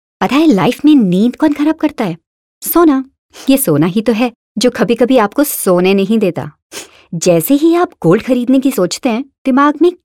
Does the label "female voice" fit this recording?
Yes